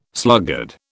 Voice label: "sluggard", British